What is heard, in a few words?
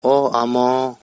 "Oh omo", Kokborok; That